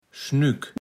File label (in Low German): "Schnük", platt